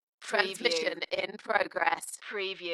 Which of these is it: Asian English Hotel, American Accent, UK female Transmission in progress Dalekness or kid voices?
UK female Transmission in progress Dalekness